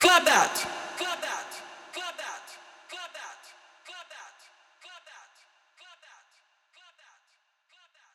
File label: EDM Future Clap That, Vocals; Big EDM; Future House Tools